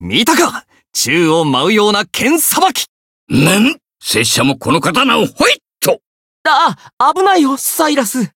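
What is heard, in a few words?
interact, Japanese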